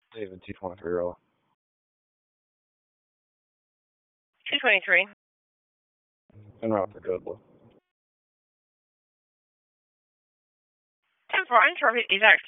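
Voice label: Raleigh Police Northeast District Dispatch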